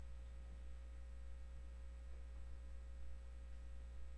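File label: "neck", spoken word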